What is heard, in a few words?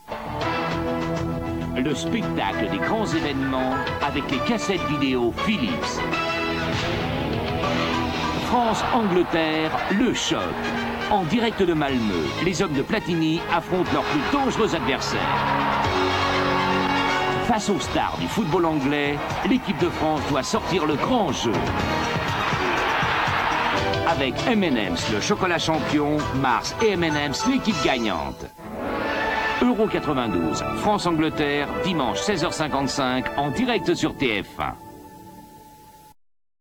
promo; tf1; euro92